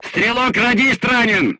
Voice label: voice message machine gunner wounded, crew tanks russian